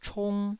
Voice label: "chung1", taiwanese

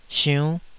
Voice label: "siunn5", taiwanese Syllables

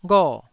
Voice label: "gho4", Taiwanese syllable

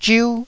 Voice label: "zhiu1", Taiwanese syllable

